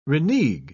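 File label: "renegue", English, British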